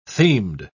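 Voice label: "themed", British English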